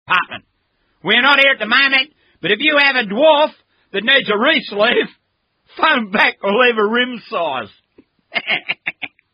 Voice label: Answering Machine Message